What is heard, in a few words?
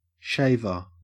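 "shaver", Australian English; pronunciations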